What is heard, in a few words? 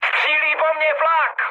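damaged aa, Czech, mood high; War Thunder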